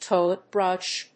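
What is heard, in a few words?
"Toilet brush", English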